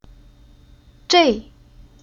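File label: "Zhei", chinese syllable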